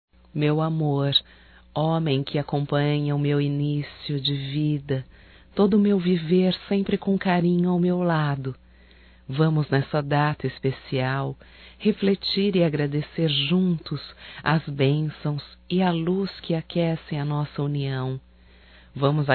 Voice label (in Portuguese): Natal e Ano Novo Romântico Feminino; Telemensagens 2017